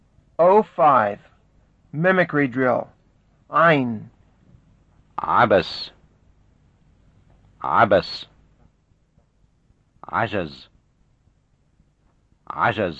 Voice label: Levantine Arabic Pronunciation; FSI